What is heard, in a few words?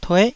"tueh9", taiwanese